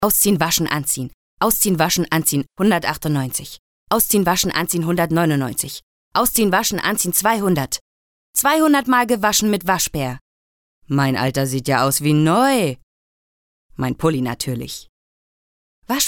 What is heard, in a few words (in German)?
werbung; waschbaer